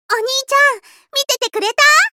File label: Voice victory